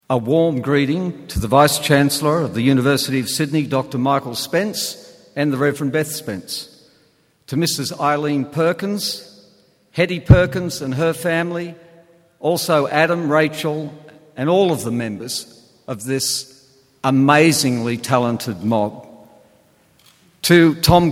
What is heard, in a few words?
Oration